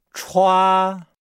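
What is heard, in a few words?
"chua", Chinese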